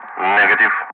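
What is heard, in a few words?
"negative", English; Ka-50; Wingman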